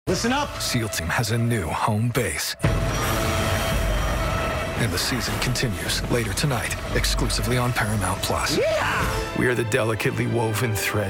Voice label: Promo